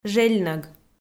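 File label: "жельнӧг", Komi, female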